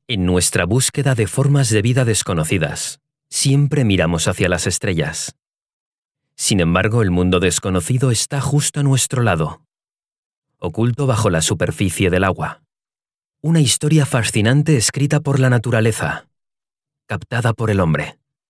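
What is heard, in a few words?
documentary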